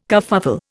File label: "kerfuffle", Indian